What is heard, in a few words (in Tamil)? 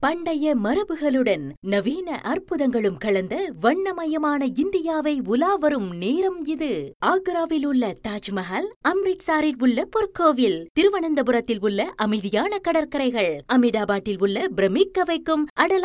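Tamil, Commercial; GoLocalise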